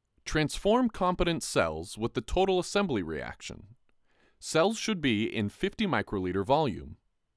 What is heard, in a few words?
Voiceover